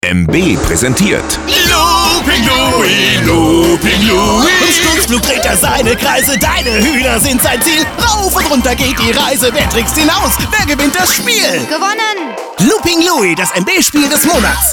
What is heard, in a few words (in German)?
TV Werbevocals; Looping Louie